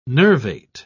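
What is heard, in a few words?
"nervate", British English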